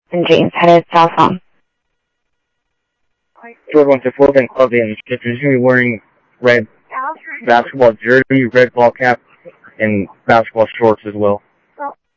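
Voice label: Sheriff East County, scanner audio trunk; South Scottsdale; MCSO - EAST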